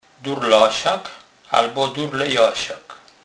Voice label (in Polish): "durlasak", kurpiow